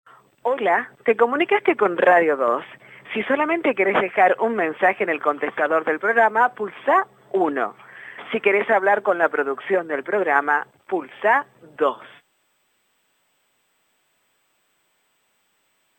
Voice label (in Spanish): mensajes telefonicos